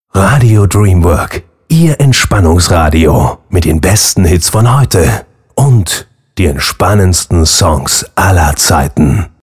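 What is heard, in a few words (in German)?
Station Voice Getragenes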